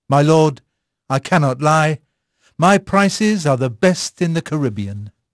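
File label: English male; Pirates Of The Caribbean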